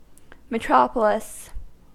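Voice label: "metropolis", US English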